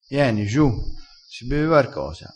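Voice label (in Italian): "giu", vocabolario dialettale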